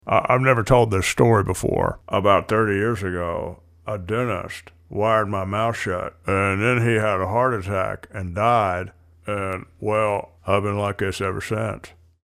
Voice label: impression; Mitch McConnell

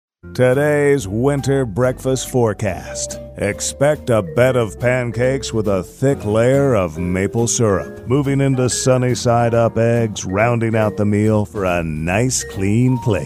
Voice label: Dry Humor; Dennys

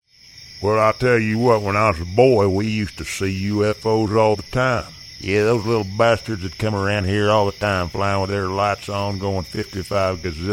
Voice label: Gaming Redneck Old Timer

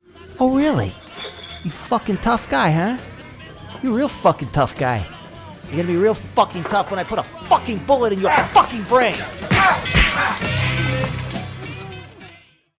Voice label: Crude Talking Tough Guy

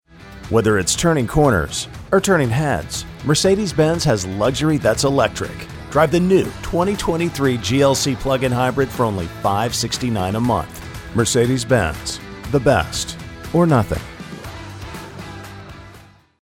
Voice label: Smooth Sophisticated; Mercedes

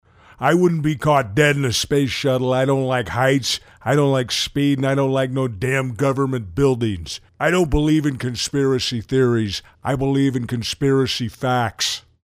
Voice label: impression; Jesse Ventura

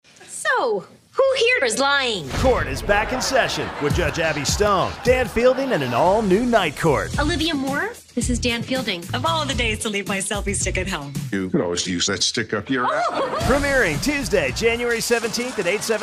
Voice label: TV Show Promo Comedy

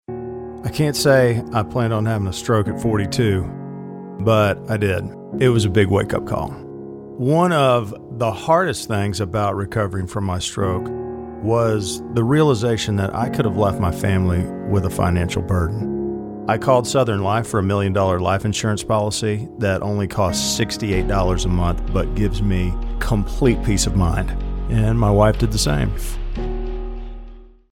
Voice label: Guy Next Door Southern Life

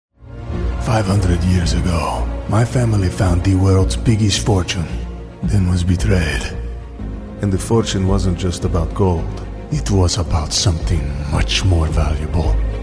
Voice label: Spanish Conqueror

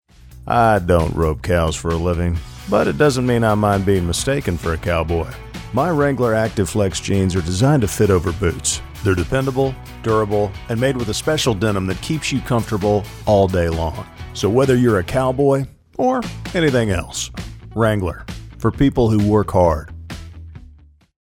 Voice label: Cowboy Gravel; Wrangler

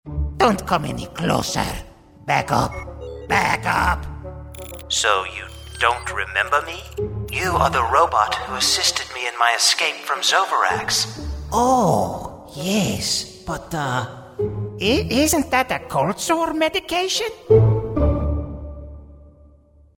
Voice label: Creature and Robot

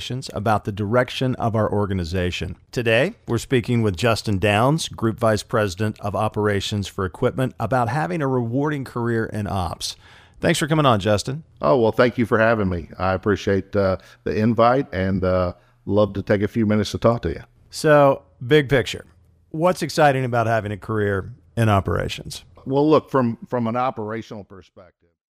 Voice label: Podcast Cool Engineer

